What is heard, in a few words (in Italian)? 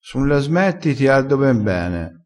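"carda", dialettale crespinese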